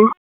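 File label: Police District Dispatch; wake; p25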